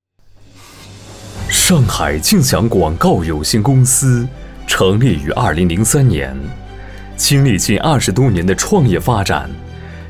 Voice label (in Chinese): 宣传片男大气温暖; 浑厚大气男个配